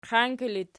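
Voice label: "qianki" locative, Archi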